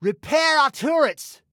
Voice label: Repair Turrets, Male